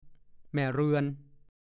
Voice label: "แม่เรือน", ภาษาโคราช; 1. ดูคำว่า เรือนนอน

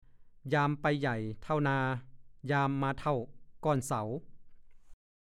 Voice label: ยามไปใหญ่เท่านา ยามมาเท่าก้อนเส่า, ภาษาโคราช; (ปริศนาคำทาย) แห

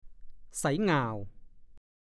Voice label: "ใสหง่าว", ภาษาโคราช

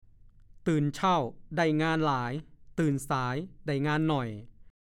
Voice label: "ตื่นเช่าได้งานหลาย ตื่นสายได้งานน่อย", ภาษาโคราช

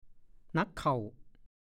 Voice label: "หนั๊กเข่า", ภาษาโคราช; ในที่สุด หลาย ๆ ครั้ง

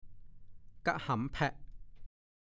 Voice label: "ก้ะหำแพ่ะ", ภาษาโคราช

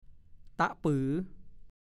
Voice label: ตะปื๋อ, ภาษาโคราช

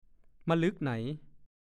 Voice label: "มะลึ่กไหน", ภาษาโคราช; ตั้งแต่ครั้งไหน นานเท่าไร

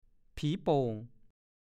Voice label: "ผีโป่ง", ภาษาโคราช; ภูตผีที่เฝ้าดินโป่ง มักจะปรากฏตัวชั่วพริบตาให้คนกลัว

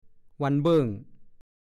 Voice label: "วันเบิ้ง", ภาษาโคราช; บางวัน

